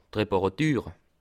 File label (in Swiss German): "reparature", Alsace